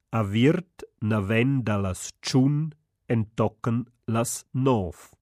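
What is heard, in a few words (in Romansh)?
aviert naven dallas 5 entochen las 9, Rätoromanische Hörprobe; Soundproben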